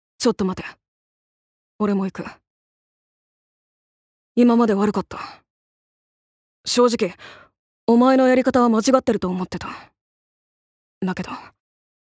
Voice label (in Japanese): アニメ